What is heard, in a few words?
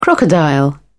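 "crocodile", British English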